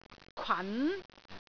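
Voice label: "kwan2", Cantonese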